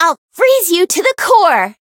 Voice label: Voice variable chant; yukino